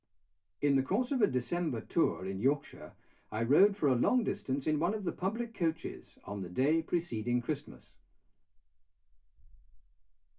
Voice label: male speech english